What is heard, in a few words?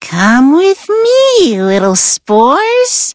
loading, en